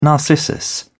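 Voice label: "narcissus", British English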